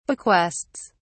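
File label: "bequests", English, British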